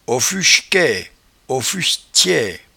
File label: "offuchquaie", patois jura